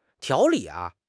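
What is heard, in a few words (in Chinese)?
"条理", Chinese; HSK6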